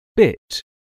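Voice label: "bit", English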